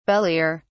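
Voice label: "belier", British English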